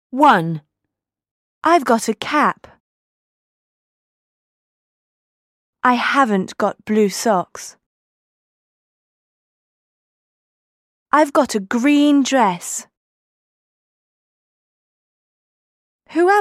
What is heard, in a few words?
english radio